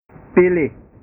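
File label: "pilih", Indonesian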